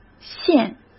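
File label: "线", Mandarin Chinese; hsk; chinese-flashcards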